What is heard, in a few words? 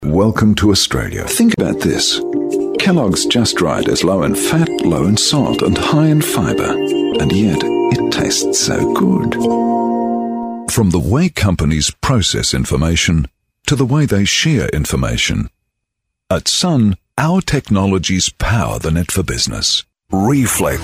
Australian